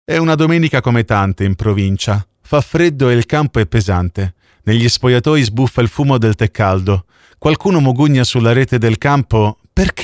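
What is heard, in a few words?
Italian male; lektoring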